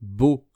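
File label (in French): "beaux", French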